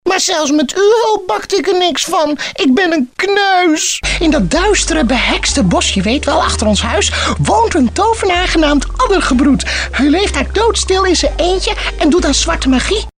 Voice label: kind, typetjes

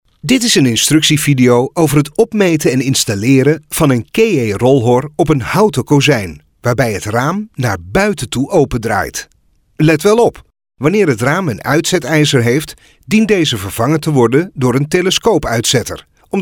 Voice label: instructie

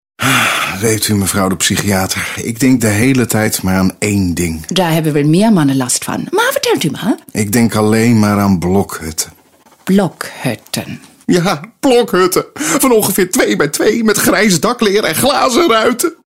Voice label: verdrietig, emoties

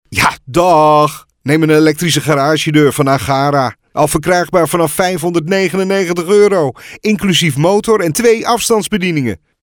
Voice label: nuchter, emoties

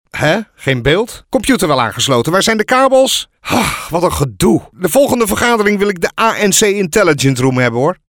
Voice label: geirriteerd, emoties

